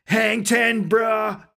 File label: vo Special "Hang Ten"; netent; wildwater